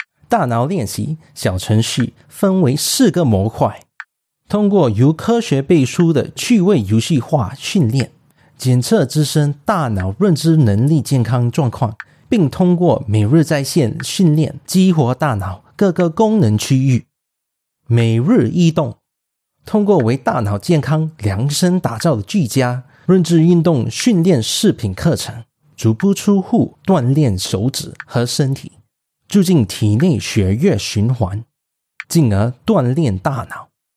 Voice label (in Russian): Мужской китайский